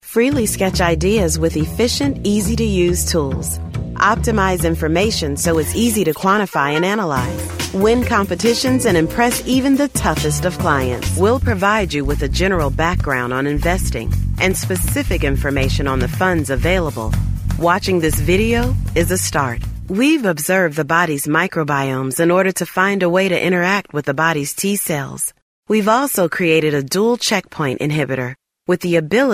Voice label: english american e-learning; english american voice actors